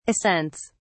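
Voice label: "ascents", British English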